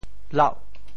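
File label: "lauh8", Teochew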